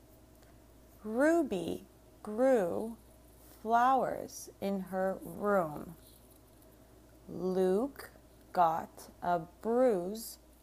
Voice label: Uw Sentences Slow